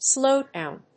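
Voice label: "slowdown", English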